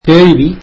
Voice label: tamil question

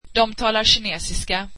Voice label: they speak Chinese, swedish

